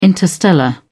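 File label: "interstellar", British English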